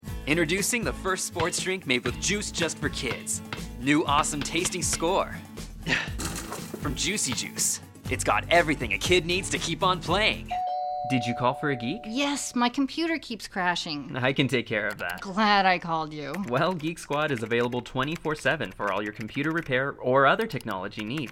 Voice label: Commercial